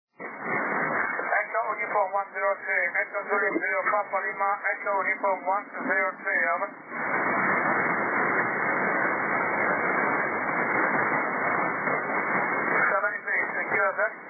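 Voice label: 40m single sideband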